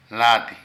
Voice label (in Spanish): Cuerpo, Zapoteco de Petapa; Vocabulary